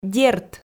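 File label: "дерт", Komi, female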